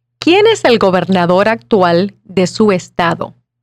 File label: Spanish